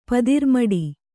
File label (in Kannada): "ಪದಿರ್ಮಡಿ", kannada